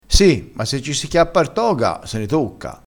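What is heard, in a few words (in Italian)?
"se ci si chiappa", dialettale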